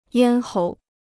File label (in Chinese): "咽喉", Chinese